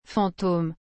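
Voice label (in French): "fantôme", French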